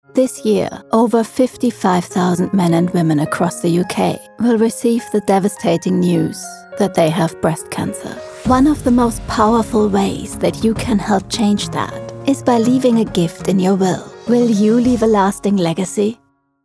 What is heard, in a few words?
Commercial BREAST CANCER ANGELS, voiceover